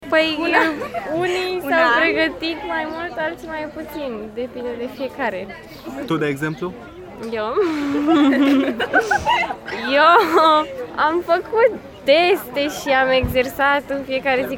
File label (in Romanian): vox fete hihihi alti elevi